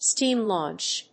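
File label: "steam-launch", English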